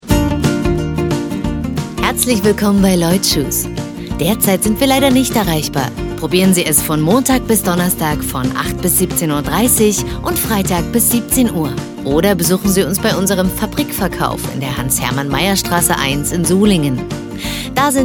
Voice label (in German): Anrufbeantworter